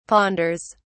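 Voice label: "ponders", British English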